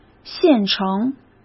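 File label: "现成", Mandarin Chinese; hsk; chinese-flashcards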